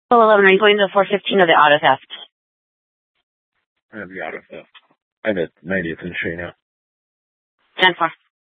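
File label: Police Department North Dispatch, scanner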